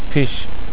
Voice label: "fisch", German